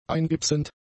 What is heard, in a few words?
"eingipsend", German; adjectives